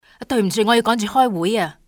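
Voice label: "sorry", female; XBOX; civ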